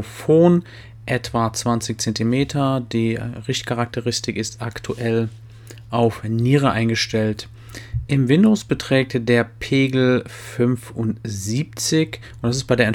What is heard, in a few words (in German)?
EPOS B20 Testaufnahme